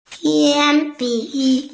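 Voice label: "pencil", hearing impaired; qian1bi3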